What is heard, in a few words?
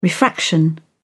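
"refraction", English, uk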